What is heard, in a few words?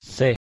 "c" pronunciation es